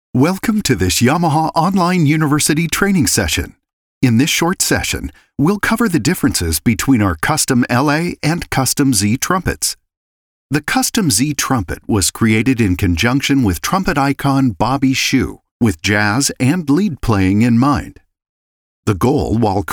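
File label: eLearning, American English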